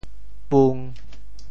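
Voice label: "pung1", Teochew; 潮州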